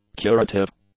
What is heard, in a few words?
"curative", English; adjectives